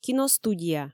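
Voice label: "киностудия", Komi, female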